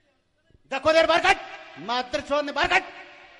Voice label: funny